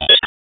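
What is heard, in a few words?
Raleigh Police Special Operations Tactical, p25; wake p25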